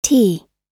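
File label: "tea", US English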